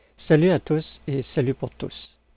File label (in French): Salutation Voix